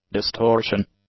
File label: "distortion", English; nouns